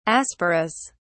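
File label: "asporous", English, British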